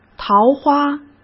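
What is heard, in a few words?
"桃花", Mandarin Chinese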